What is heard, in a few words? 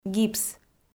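"гипс", Komi, female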